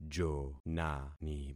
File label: "jonani", concat syllables; Affixing experiment